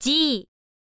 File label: info lady